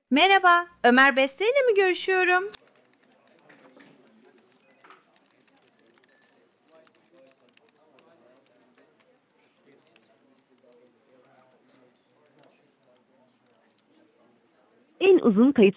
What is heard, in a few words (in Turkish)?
Yapay Zeka Arama, Görüşme Kaydı; En uzun kayıt süresine ulaştınız. Mesajınız kaydedilmiştir.